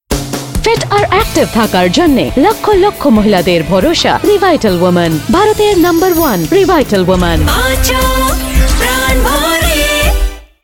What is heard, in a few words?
Bangla Radio Ad; Revital